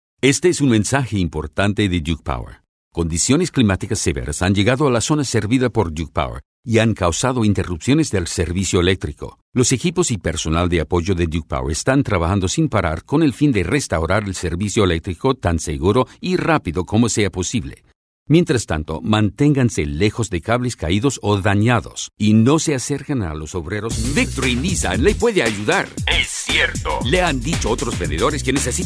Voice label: Spanish, international